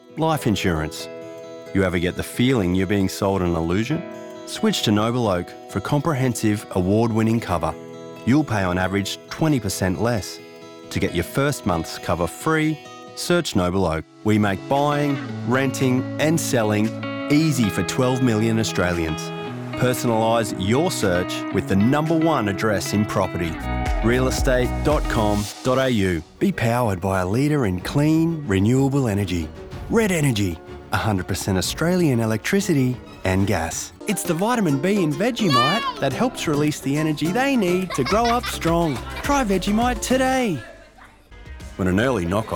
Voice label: Commercial